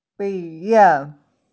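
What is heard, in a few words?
"biye", Mandarin Chinese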